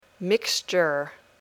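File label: "mixture", English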